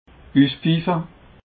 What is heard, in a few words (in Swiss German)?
"uspfiffe", alsace